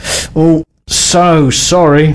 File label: Sorry, male; svencoop